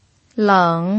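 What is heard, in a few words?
"leng", Mandarin Chinese pinyin, tone 3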